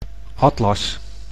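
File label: "atlas", Dutch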